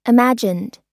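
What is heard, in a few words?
"imagined", US English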